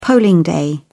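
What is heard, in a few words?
"polling day", British English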